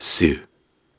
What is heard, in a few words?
Soft Syllables and Imaginary Words, Viking Vocal